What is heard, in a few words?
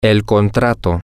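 the contract, Spanish, male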